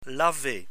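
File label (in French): "laver", French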